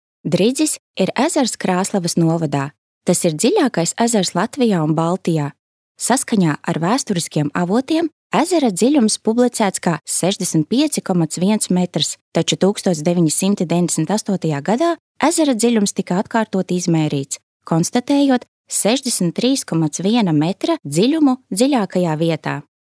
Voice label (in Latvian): Infografika LV